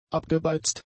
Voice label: "abgebeizt", German; adjectives